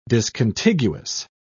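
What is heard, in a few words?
"discontiguous", English, British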